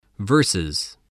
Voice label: "versus", English